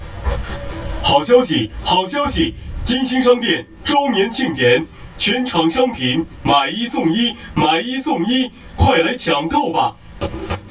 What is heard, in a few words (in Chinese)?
促销